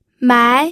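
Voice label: "mai", second tone, pinyin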